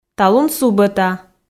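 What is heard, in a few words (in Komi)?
"талун субӧта", Komi, female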